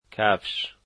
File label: shoes, Dari